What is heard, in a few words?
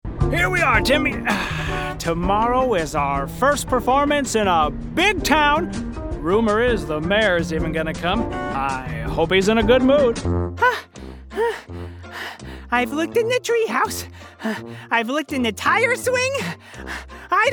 animation